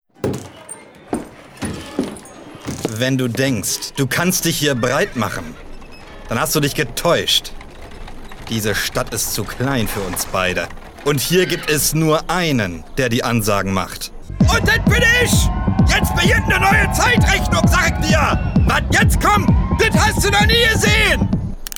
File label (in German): Junge